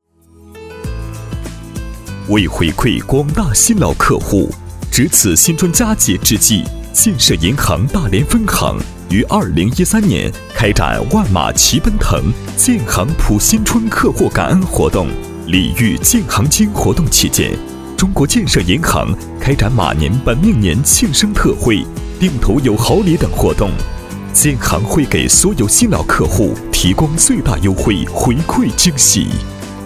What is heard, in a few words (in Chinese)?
男4 男样音, 国语男声配音, 男04大气专题; 万马齐奔腾